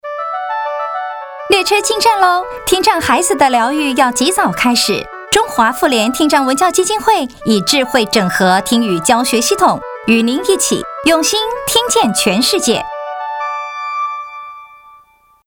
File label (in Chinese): 高雄捷運進站廣播